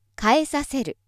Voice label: female